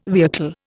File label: "vehicle", Indian